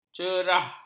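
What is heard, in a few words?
"cerah", Malay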